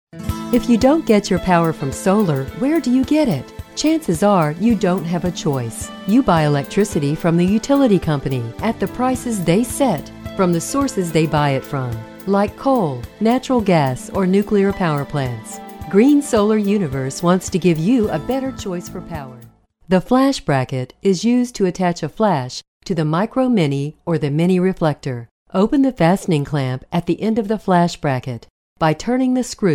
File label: Narr, narration